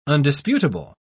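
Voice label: "undisputable", British English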